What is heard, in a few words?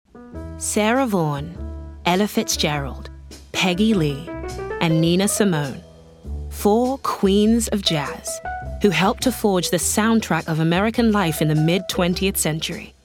Documentary